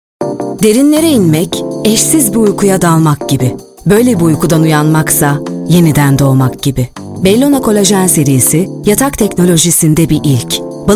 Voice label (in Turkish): reklam; bellona